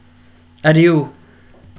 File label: "adieu", GBR m